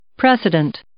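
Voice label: "precedent", English, female; noun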